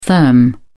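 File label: "therm", British English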